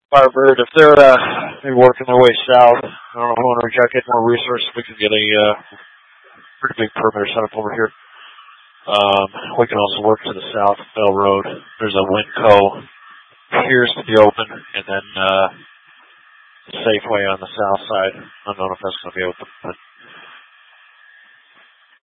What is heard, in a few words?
Mutual Aid-Intersystem Interop B, scanner